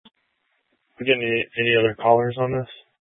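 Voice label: Police Dispatch, Scanner audio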